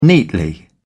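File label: "neatly", British English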